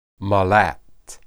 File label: "malat", Hebrew